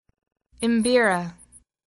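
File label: "mbira", English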